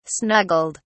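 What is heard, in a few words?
"snuggled", British English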